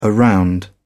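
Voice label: "around", British English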